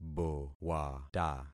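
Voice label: "bowata", concatenated syllables; Affixing experiment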